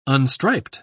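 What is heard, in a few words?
"unstriped", British English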